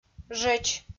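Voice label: "жӧч", Komi, female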